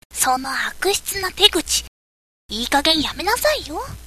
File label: girl